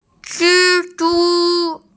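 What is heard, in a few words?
"zhi zhu", Mandarin Chinese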